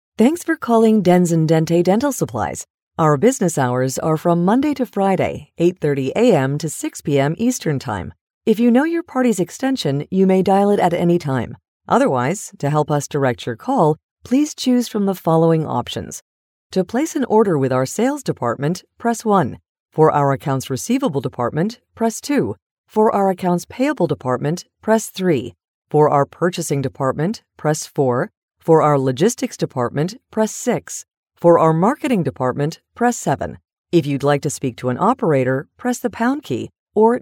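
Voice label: english american interactive voice response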